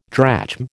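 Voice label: "drachm", American English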